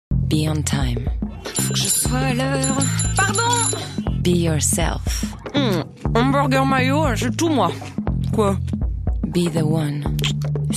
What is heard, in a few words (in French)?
radio pub spot